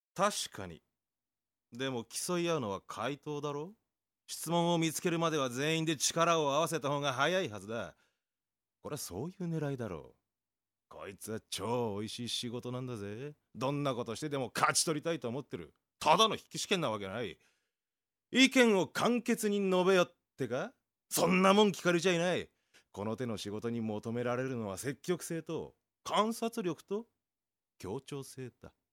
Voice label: male voice

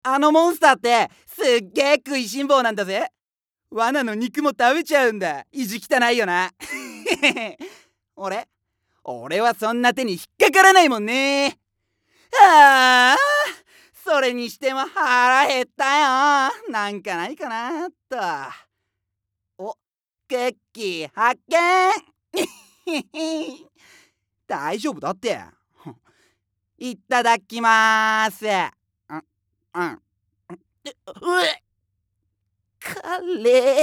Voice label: male voice; セリフ

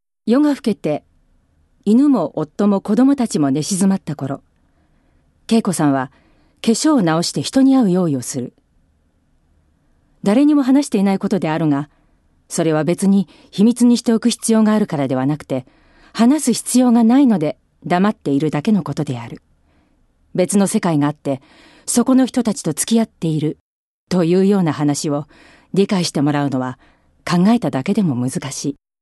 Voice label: reading, female voice

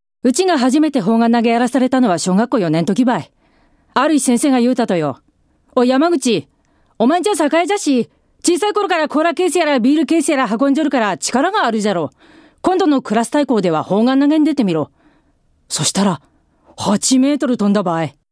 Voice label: hougen, female voice

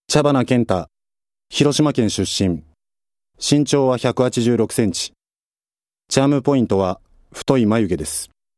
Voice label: freetalk, male voice

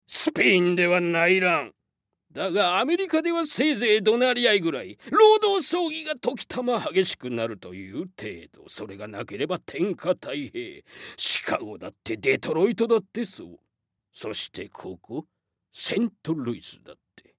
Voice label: male voice; セリフ

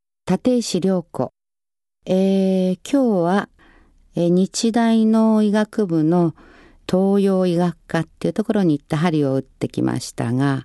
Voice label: free talk, female voice

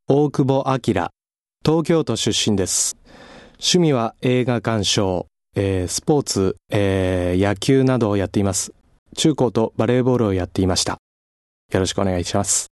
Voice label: freetalk, male voice

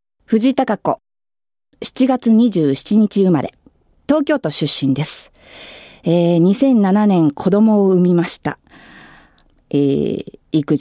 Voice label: free talk, female voice

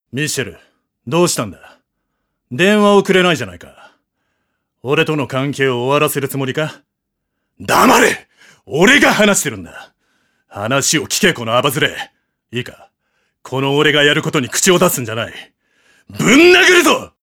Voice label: male voice; セリフ

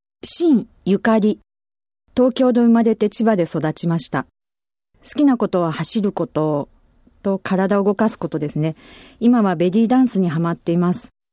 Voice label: freetalk, female voice

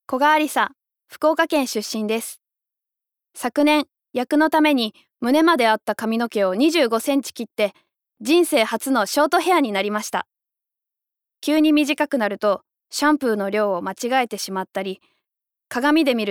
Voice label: Freetalk, female voice

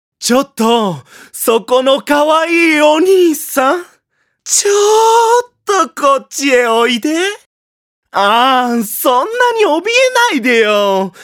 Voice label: male voice

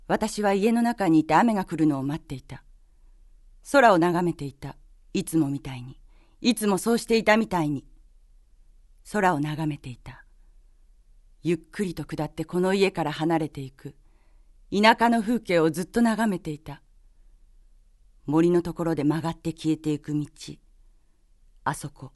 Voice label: female voice

